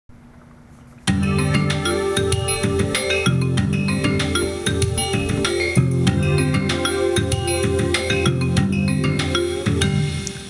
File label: Station Greeting